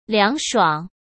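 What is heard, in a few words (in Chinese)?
"凉爽", Mandarin Chinese; hsk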